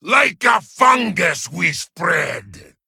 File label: Male Satyr Play